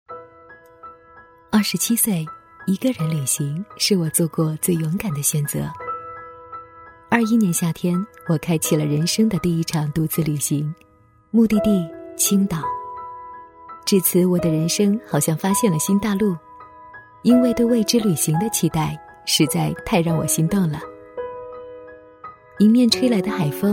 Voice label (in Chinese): 女, 旁白, 青岛旅行, 温馨